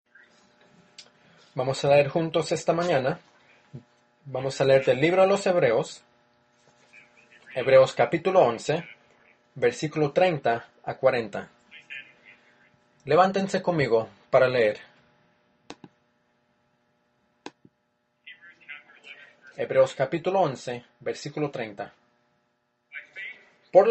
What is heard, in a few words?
Spanish; FaithandVictory